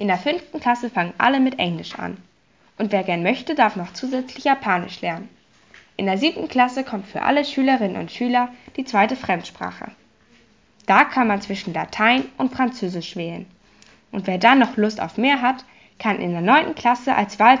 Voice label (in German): spanisch